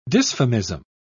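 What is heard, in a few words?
"dysphemism", British English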